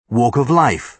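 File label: "walk of life", British English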